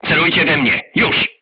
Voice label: voice message art command oneself, crew tanks polish